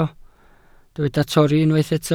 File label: "torri", Welsh